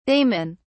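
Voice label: "daman", British English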